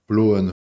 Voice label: "bloan", Proto-Germanic